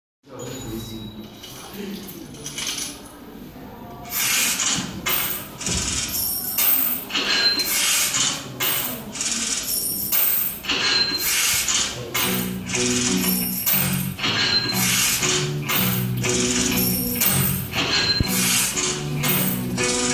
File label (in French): SPOT PUB